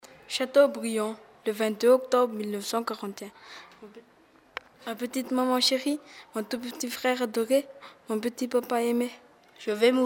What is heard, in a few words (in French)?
lettre à plusieurs voix; lettre Guy Môquet